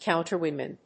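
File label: "countrywoman", English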